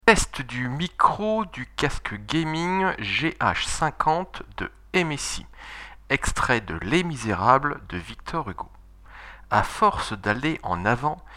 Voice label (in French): Test Micro